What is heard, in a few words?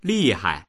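"li4hai", Mandarin Chinese; 厉害; すごい、たまらない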